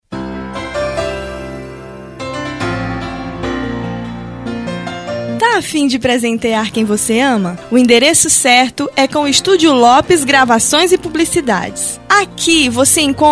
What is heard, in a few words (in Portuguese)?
Spot; Disk Mensagens Lopes 2019; Studio Loppes Gravações e Publicidades